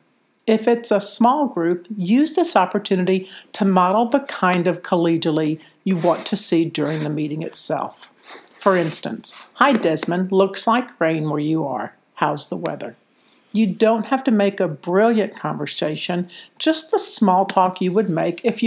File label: Conference Call Participation